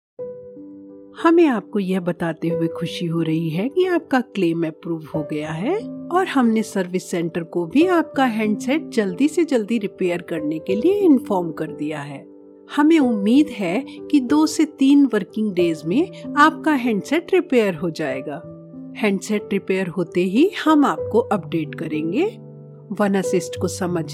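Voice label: IVR Hindi; OneAssist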